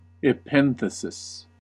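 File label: "epenthesis", US English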